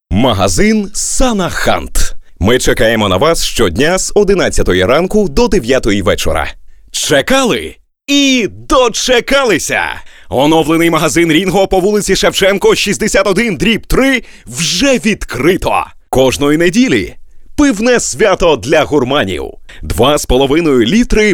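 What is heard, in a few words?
Ukrainian; voices male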